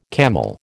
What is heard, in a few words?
"camel", American